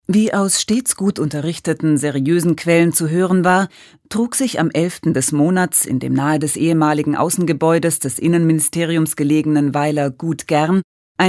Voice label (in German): Kommentar Sachlich